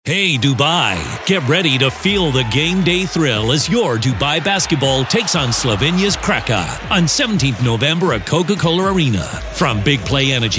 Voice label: hard american accent

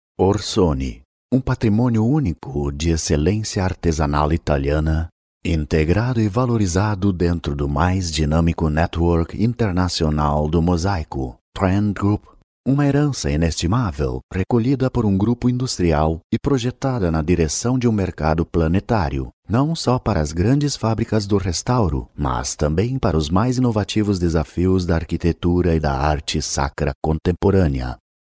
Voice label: Brazilian corporate

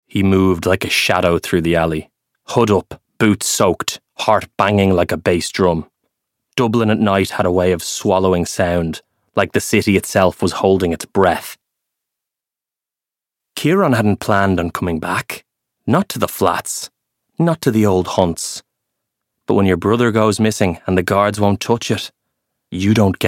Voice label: Irish voice audiobook

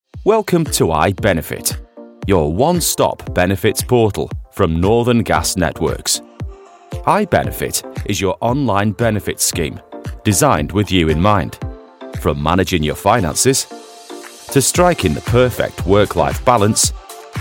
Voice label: corporate explainers

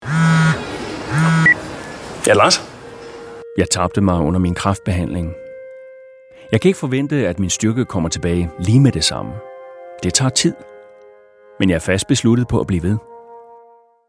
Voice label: danish male character

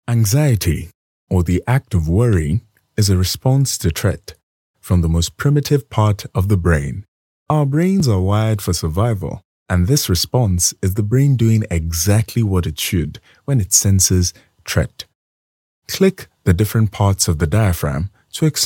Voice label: Nigerian voice, e-learning

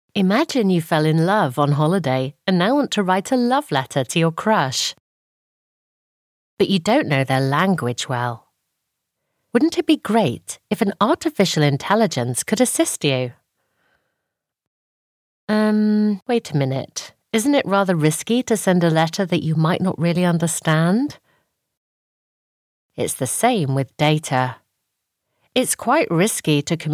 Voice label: e-learning

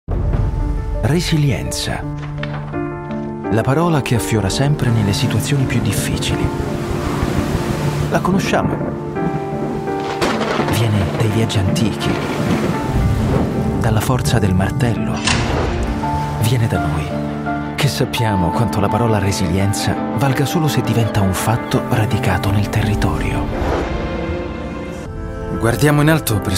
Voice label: italian male corporate

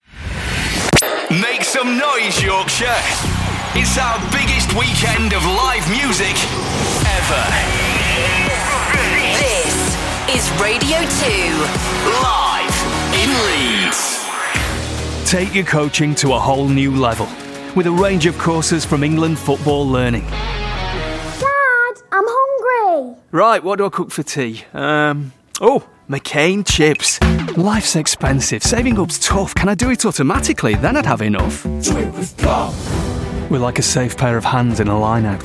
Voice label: northern accent, voiceover

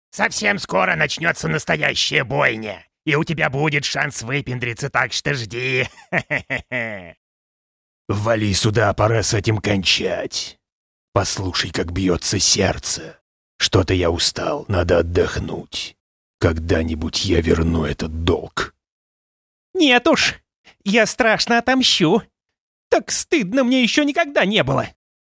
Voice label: Russian character

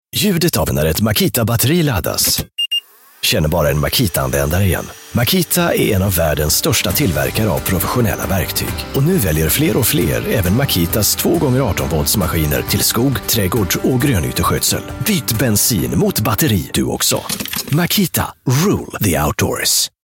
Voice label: swedish voice makita commercial

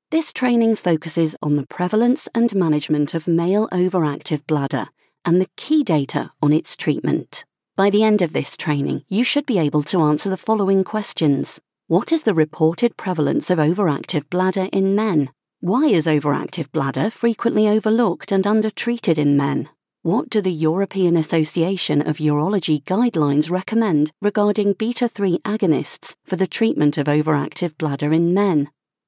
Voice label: elearning medical

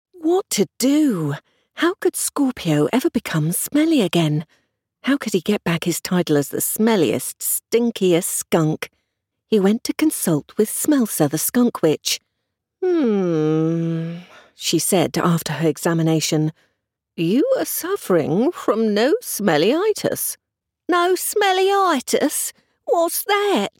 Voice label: audiobook, British Voiceover

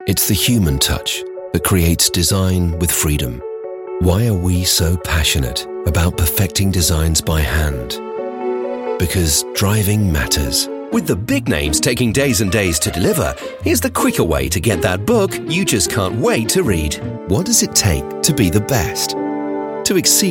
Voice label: commercial showreel, Fun Engaging

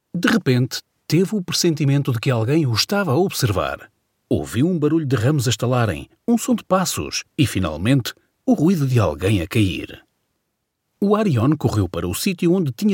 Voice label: Portuguese voiceover, audiobook

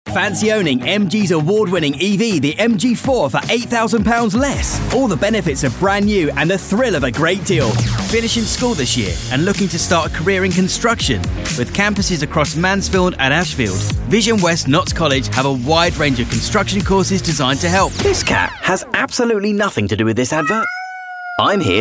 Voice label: commercials

